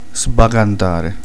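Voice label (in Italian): "svuotare", Italian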